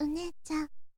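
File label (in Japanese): "onechan", Japanese girl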